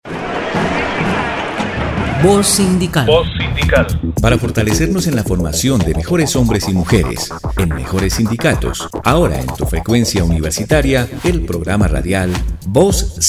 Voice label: promo; Voz Sindical; Febrero General